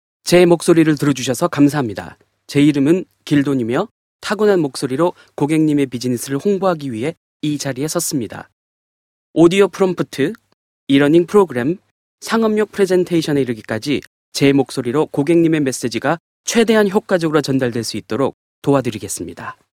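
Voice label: Korean General; GoLocalise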